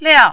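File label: "liao", Mandarin, fourth tone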